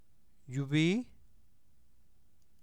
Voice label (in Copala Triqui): "yuvíí", Copala Triqui